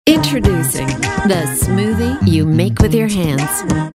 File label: Fruit Smoothie Ad, friendly genuine upbeat